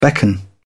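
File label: "beckon", British English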